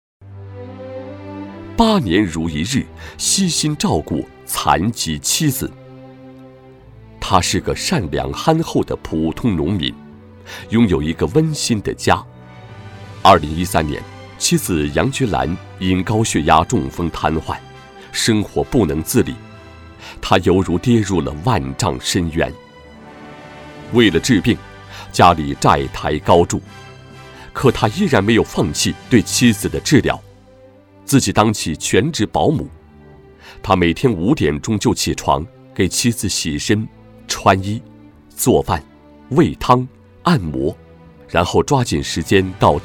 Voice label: 男 国语 磁性 激情, 颁奖; 热心助残人物颁奖

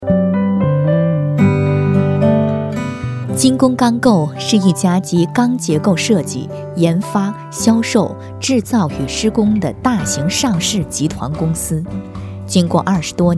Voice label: 国语 温柔 亲切, 专题; 精工钢构

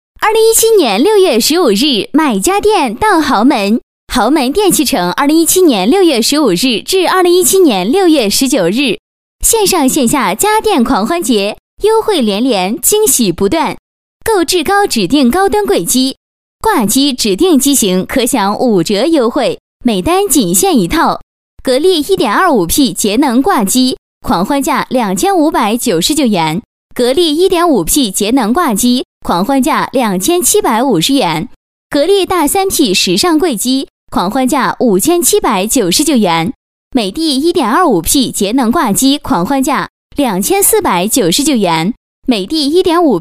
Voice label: 女国语, 广告; 豪门电器城

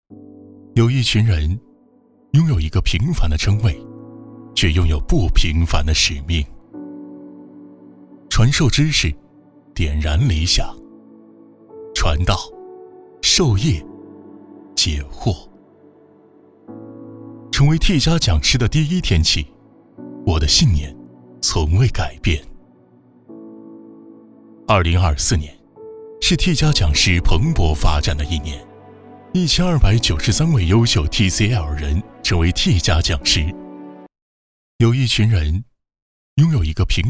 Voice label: 男国语, 旁白; 教师节

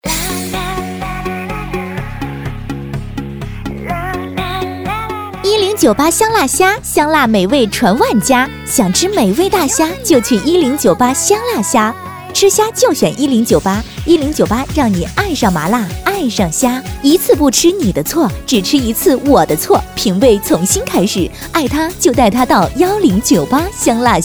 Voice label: 国语 温柔 亲切, 广告; 美味香辣虾

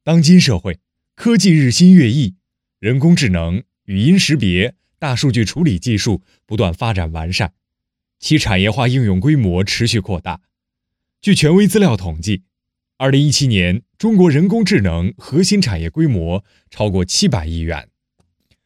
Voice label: 配音师男国语, 庄重大气 激情活力, 专题; 发财猫AI智呼

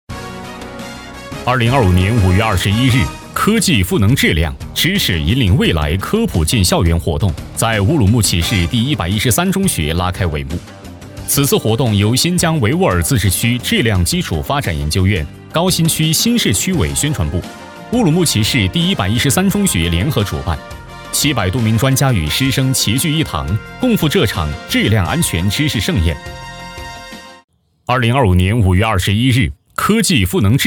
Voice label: 男国语, 新闻体

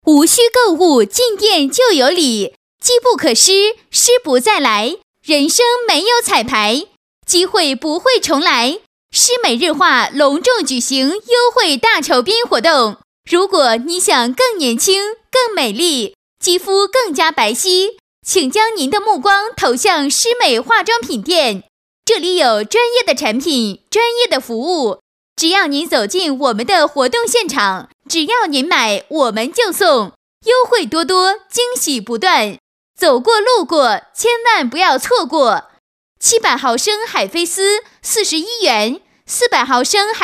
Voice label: 女国语, 广告; 施美日化

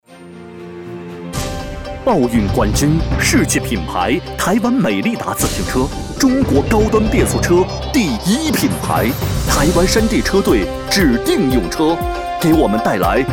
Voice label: 【广告】, 男国语, 磁性, 明亮

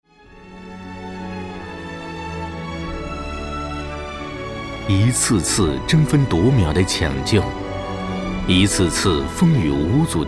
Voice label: 【专题】, 国语; 争分夺秒

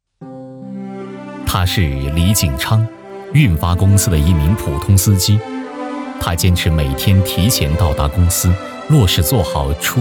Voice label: 男国语; 【人物】公交驾驶员

